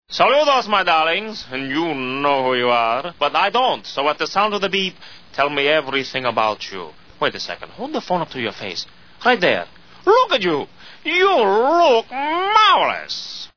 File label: voice greeting